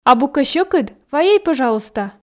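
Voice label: "абу кӧ сьӧкыд вайӧй пӧжалуйста", Komi, female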